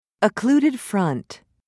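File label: "occluded front", British English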